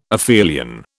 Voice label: "aphelion", British